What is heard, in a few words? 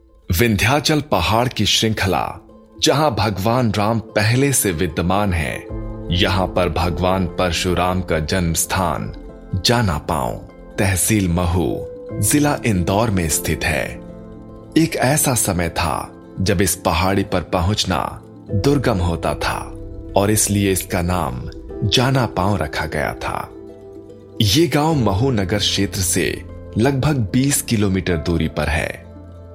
Documentaries, Voice-Over; Vindhyachal janapav; Documentary